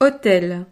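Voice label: "hotel", French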